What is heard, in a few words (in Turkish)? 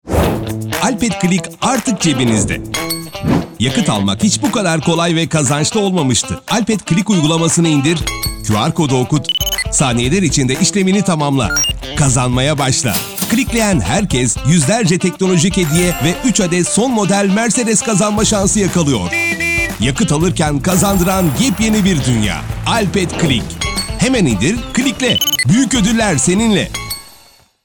reklam; alpet click